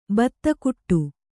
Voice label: "ಬೆದೆಗೂಡು", Kannada

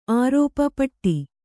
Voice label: "ಪೋಟು", Kannada

